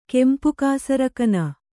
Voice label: "ಕೆಂಪುಕಾಸರಕನ", Kannada